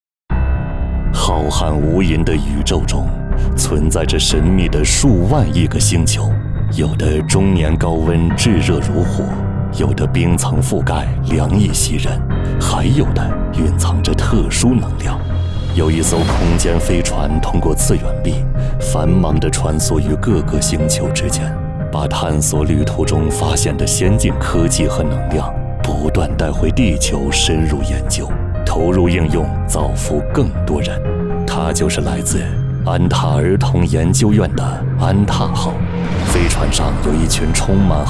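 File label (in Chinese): 角色 男 低沉旁白; 元宇宙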